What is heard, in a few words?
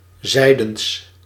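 "zijdens", Dutch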